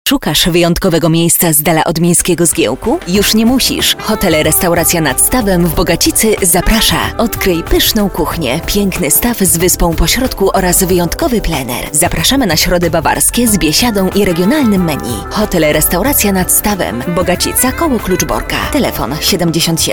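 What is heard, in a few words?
PL F commercial, Polish, female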